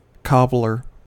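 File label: "cobbler", US English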